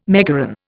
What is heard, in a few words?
"megaron", Indian